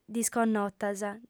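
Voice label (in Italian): "disconnotas", lingua sarda, female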